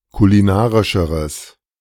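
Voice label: "kulinarischeres", German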